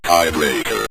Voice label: tie breaker, synth voice; Kenney's Sound Pack

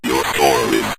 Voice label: "your score is", synth voice; Kenney's Sound Pack

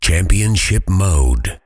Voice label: championship mode, Voiceover Pack Fighter; Kenney's Sound Pack

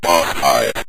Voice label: boss Fight, Synth Voice; Kenney's Sound Pack

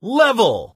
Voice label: "level", male; Kenney's Sound Pack; Voiceover

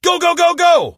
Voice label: "go go go", Male; war; Kenney's Sound Pack; Voiceover Pack

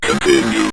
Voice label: "continue", Synth Voice; Kenney's Sound Pack

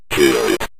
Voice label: kill It, Synth Voice; Kenney's Sound Pack

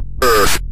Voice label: planet earth, Synth Voice; Kenney's Sound Pack; Synth Voice 1

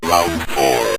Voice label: round 2, synth voice; Kenney's Sound Pack

